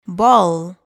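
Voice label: "ball", English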